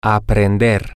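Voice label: male, spanish; to Learn To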